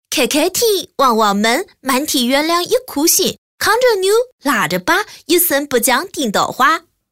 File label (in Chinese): 女 方言 合肥话